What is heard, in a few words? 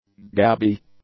"gabby", English; adjectives